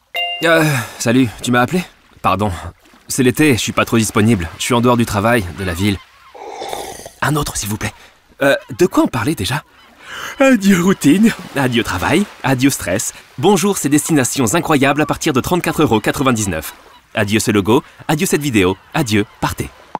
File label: commercial, French, male